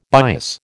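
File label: "bias", American English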